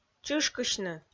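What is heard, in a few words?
"чышкысьны", Komi, female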